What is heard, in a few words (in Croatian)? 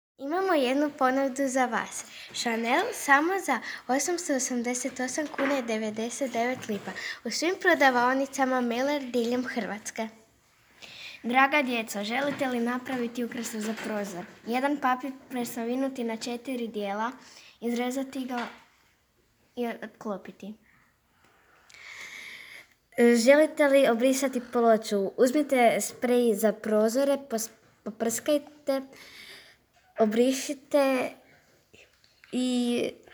reklame